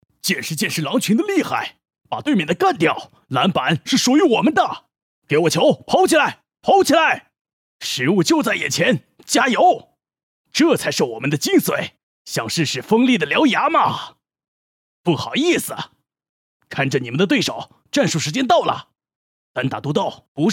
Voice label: 男 动漫 猛男角色

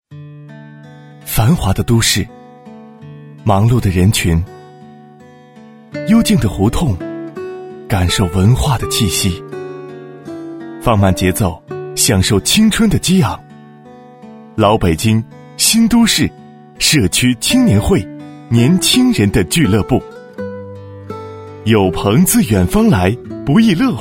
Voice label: 男 旁白

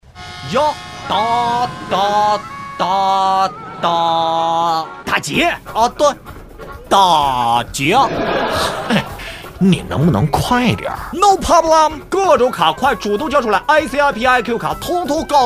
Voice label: 男 广告 电信宽带

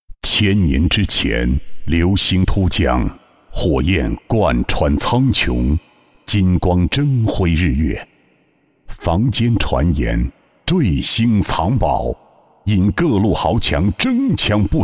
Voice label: 男, 游戏; 博商游戏

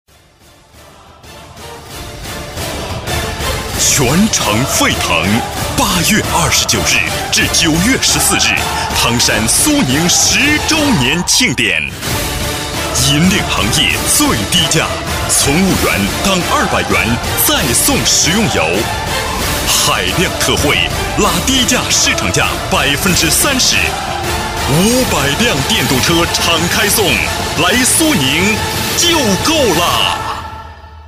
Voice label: 男 广告 雄浑 大气

